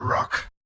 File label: Yamaha DJX 2B, "Rock The House", robot